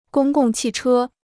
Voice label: "公共汽车", Chinese